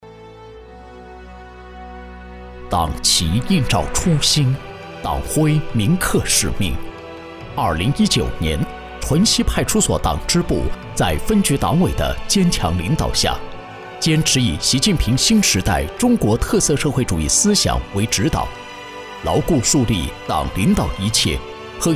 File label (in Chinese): 党建浑厚悠扬